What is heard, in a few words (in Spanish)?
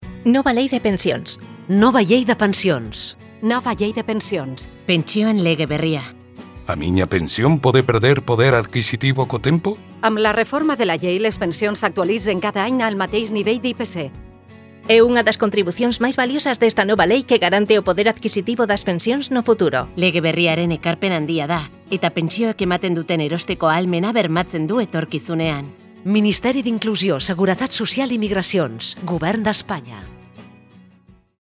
nueva ley pensiones euskera catalan valenciano gallego